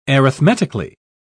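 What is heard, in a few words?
"arithmetically", British English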